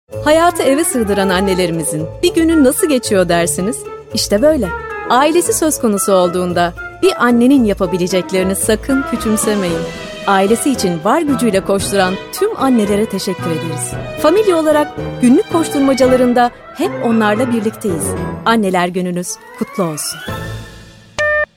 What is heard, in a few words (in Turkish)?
reklam tiyatral samimi; familia